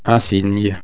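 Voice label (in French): "cygne", French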